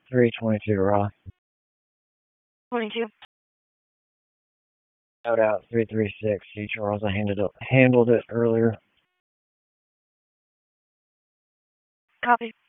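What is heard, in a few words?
Police District Dispatch, p25; Raleigh Police North District Disp; wake p25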